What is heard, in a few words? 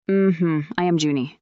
fem